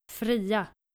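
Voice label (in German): "fria", dänische Mädchennamen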